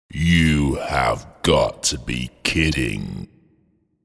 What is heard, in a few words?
The phrase ‘You have got to be kidding’ spoken in male voice; Recorded Voice